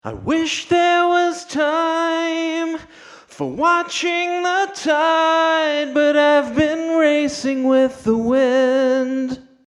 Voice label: classic vocal; pod x3